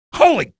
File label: shock, male